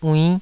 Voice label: "uinn5", taiwanese